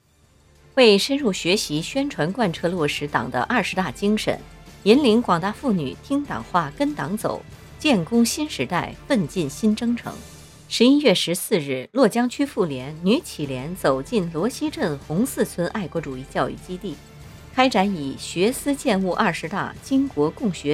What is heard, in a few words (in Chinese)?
新闻类